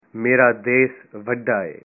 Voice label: your country is big, punjabi

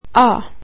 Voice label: swedish alphabet a

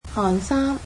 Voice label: "sweater", Cantonese

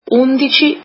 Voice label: italian eleven

